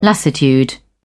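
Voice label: "lassitude", British English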